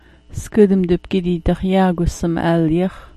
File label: "dax", smalgyax